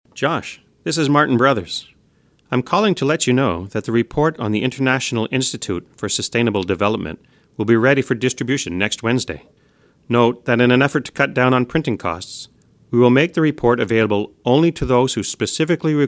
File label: voicemail message; release of report